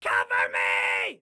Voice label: Defend Me, Excited Guy